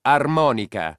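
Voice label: "armonica", Italian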